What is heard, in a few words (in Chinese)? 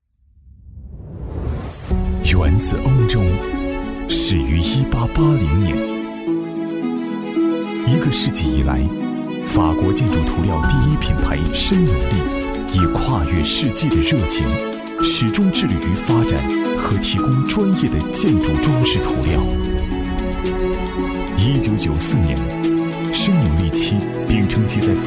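男国大音-产品宣传片; 申纽丽漆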